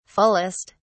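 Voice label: "fullest", British English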